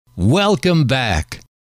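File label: welcome back, male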